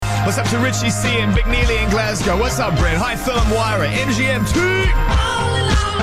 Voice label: Shout Out Radio